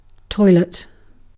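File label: "toilet", British English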